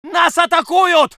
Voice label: voice sov infantry